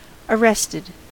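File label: "arrested" English, US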